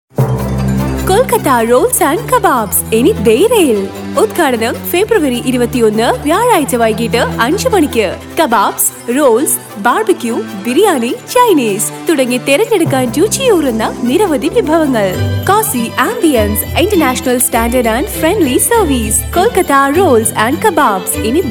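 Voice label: promo malayalam, Malayalam male Voice Over Native Malayalam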